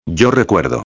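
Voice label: "recuerdo", Spanish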